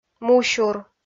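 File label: "мусюр", Komi, female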